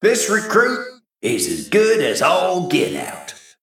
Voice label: Male Mech

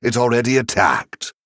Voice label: Male Venthyr ERROR MINION ATTACKED

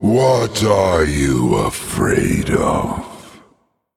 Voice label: Male Undead Emote Greetings

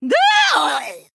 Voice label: Female Night Elf Death

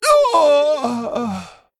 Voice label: Female Worgen Death

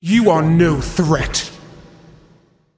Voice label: Female Titan Attack

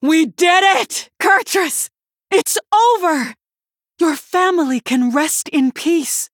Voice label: Female Human Victory; Hero Cariel; Mission6 Victory

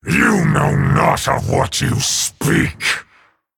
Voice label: Male Dragon Emote Bartender Greetings; Bacon Shop

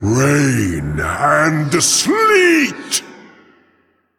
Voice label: Male Elemental In Game Boss Uses Hero Power; Lokholar

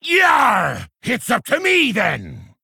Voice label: Male Orc Play

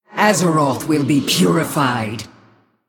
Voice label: Female Human

